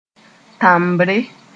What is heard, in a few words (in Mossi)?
"tãmbre", moore